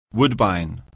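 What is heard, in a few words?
"woodbine", English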